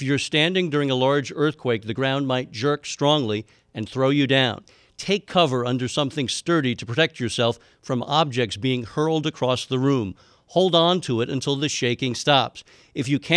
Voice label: Drill Broadcast English No Sound Effects; 60sec; ShakeOut